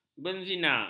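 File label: "benzine", Malay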